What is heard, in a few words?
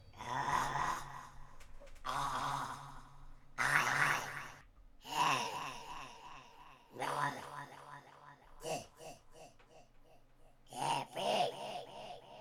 Demonic Voice With Delay